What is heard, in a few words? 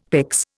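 "pyx", Indian English; Dictionary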